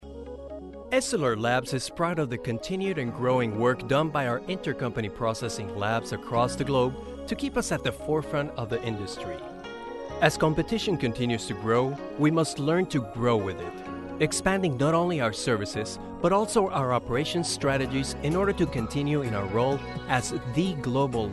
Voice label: Narr Eng

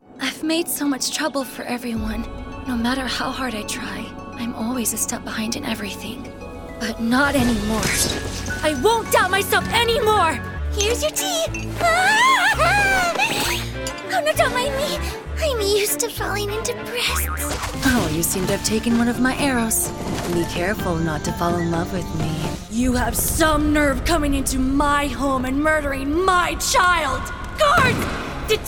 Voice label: Character

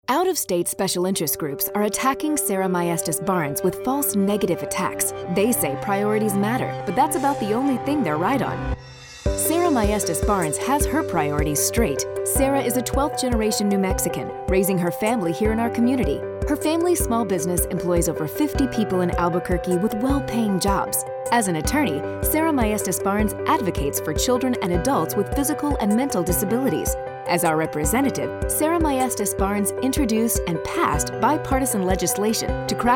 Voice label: Pol Radio; SMB Priorities Matter

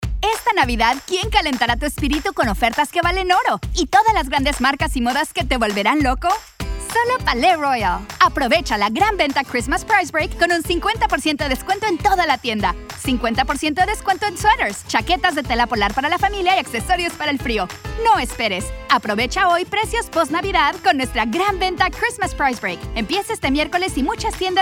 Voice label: ESP Radio; 5 Gold; Palais Royal

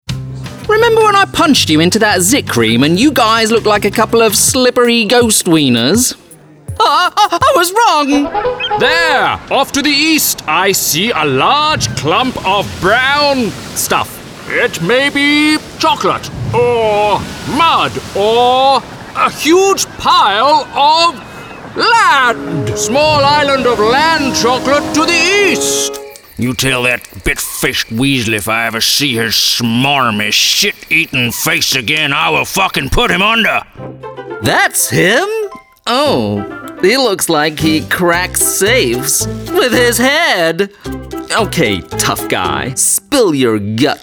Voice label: Character

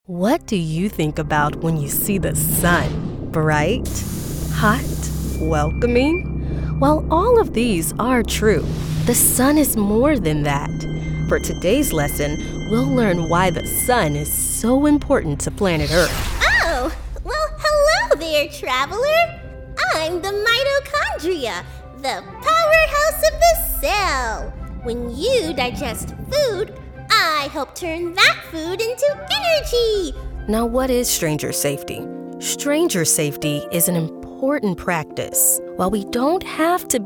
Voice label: E-Learning

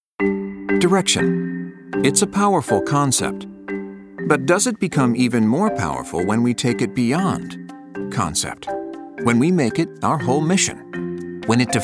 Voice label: Narr

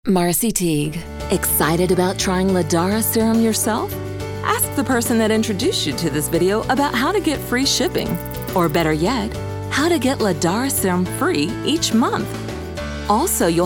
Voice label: Narr

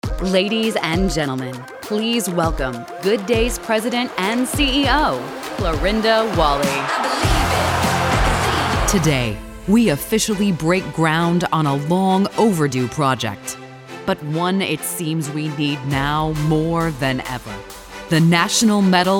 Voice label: VOG

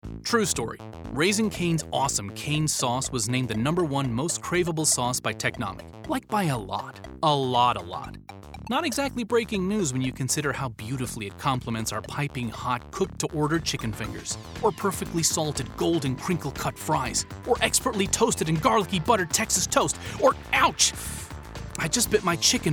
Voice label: Spot; Raising Canes Most Craveable

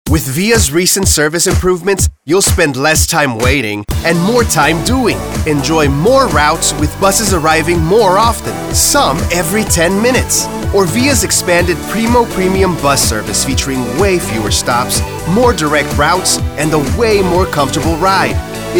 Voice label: ENG

